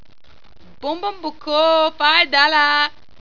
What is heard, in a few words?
female five dollar; svencoop; cyborgaudio